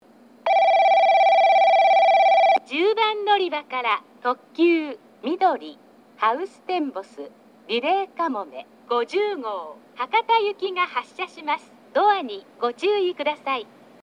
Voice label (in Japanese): 10番のりば発車放送; みどり・ハウステンボス・リレーかもめ50号 博多行き; takeo onsen